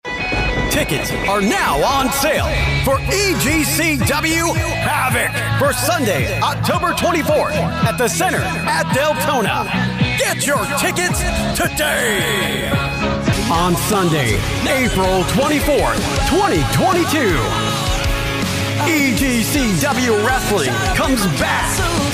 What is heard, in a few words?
Announcer